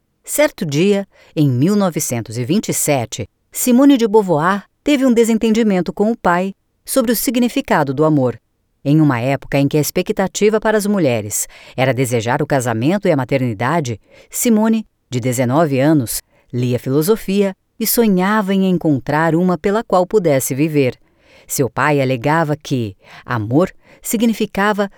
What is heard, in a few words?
portuguese brazilian audiobooks